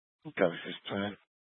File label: Fire Dispatch